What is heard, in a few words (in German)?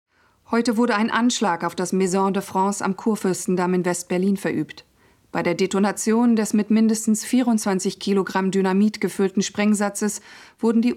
Nachrichten; LOFT VOICES